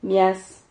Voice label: "mias", Silabas

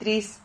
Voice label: "tris", Silabas

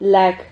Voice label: "lag", Silabas

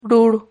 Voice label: "rur", Silabas

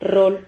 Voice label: "rrol", Silabas

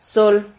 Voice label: "sol", Silabas

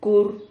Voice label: "cur", Silabas

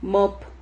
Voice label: "mop", Silabas

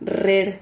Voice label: "rrer", Silabas

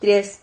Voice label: "tries", Silabas

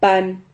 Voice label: "pan", Silabas

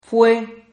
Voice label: "fue", Silabas

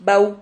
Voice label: "vau", Silabas

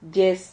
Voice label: "lles", Silabas

